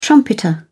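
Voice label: "trumpeter", British English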